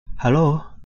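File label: "ha luo", Chinese pronunciation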